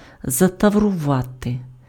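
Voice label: "затаврувати", Ukrainian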